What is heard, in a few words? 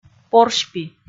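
"порсьпи", Komi, female; kpv audio dict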